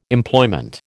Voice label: "Employment", American

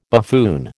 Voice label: "buffoon", American

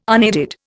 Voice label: "unaided", Indian

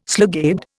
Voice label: "slugabed", Indian

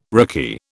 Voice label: "rickey", British

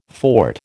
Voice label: "fort", American

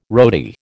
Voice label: "roadie", American

